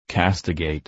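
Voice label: "castigate", English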